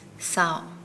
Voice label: Nukuoro; escape

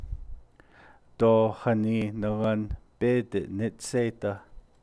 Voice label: Why don't you expand your house, Navajo

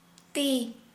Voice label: Santali hand

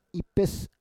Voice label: "ipes", Roon

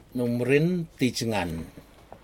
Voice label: fish fin, Aneityum

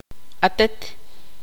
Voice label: tzutujil; t vos usted singular

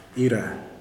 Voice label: "who", Kuman

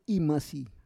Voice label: "imasi", Roon

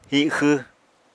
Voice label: "hixww", apatani

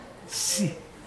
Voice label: "bone", Mokilese

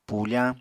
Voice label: "pufañ", Apatani

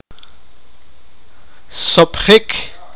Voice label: sipakapense; swell

